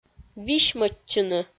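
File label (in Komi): "висьмӧдчыны", Komi, female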